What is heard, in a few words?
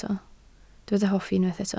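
"hoffi" Welsh, spoken word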